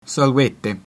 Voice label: "hello", Latin